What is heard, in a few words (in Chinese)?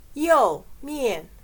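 "右面" Mandarin Chinese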